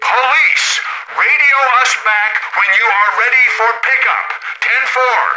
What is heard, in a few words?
Police Radio Call